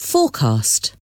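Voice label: "forecast", English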